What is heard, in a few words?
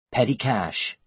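"petty cash", American English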